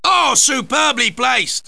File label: ooh superbly placed, English commentary; swos